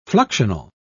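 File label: "fluxional", British English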